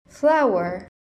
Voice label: "flower", English